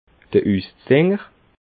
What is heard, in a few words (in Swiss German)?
"uszinder", alsace